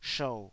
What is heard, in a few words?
"shou3", taiwanese